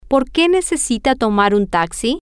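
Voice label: whyDoesSheNeedToTakeATaxi, Spanish, female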